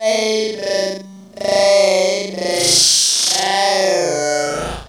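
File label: effects talk, Funky Vocals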